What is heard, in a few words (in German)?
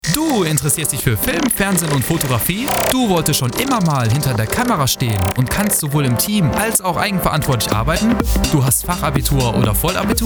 Werbespot; Filmklasse